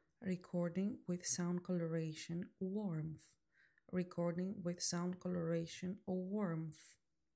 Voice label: Sound coloration Warmth; maono ai wave t5